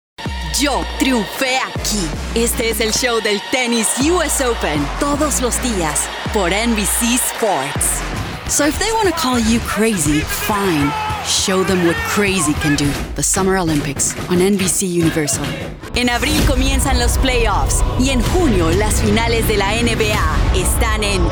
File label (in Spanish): Promo Bilingue Deporte